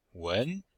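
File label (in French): "wen2", Chinese, Vocabulaire et phonétique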